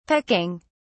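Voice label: "pecking", British English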